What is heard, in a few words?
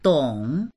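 "dong3", Mandarin Chinese; 懂; わかる、理解している; dictionary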